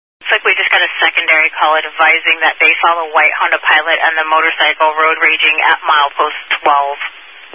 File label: Highway Patrol, Phoenix Metro East Area, scanner